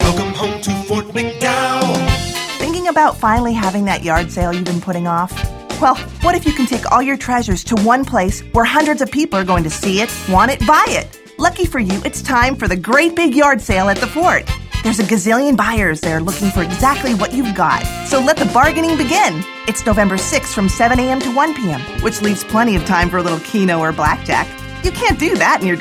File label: voiceover female